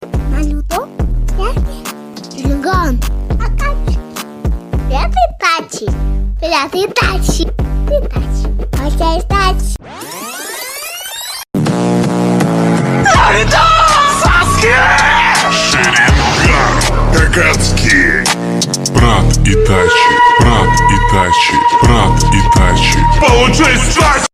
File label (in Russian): detskii golos; naruto saske; Звуки Наруто из аниме